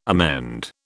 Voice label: "amend", British

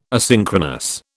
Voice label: "asynchronous", British

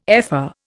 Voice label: "ephor", Indian

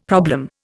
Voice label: "problem", Indian; Dictionary

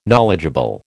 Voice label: "knowledgeable", American

